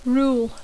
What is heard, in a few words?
"rule", English